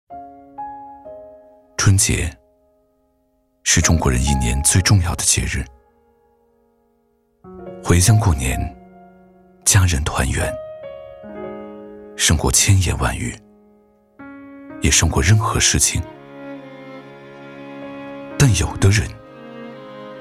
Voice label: 男 素人 走心旁白; 素人风格男声; 过年晚回家的人

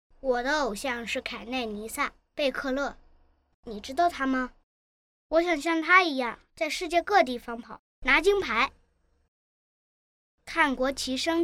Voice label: 男真童 我的偶像; 真童配音

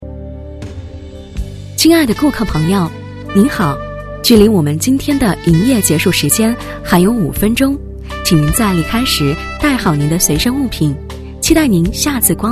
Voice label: 女国 商场广播声; 女声广播提示; 中环国际广场

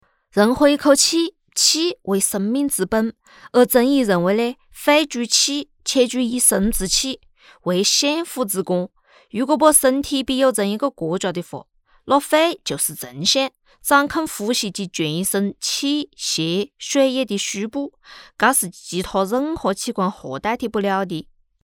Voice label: 湖南方言 女 湖南话 人活一口气; 方言配音

